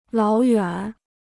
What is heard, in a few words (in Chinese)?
"老远", Chinese